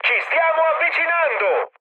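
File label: Italian near waypoint mood high, speech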